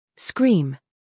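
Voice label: "scandal", American; scream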